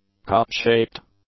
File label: "cup-shaped", English; adjectives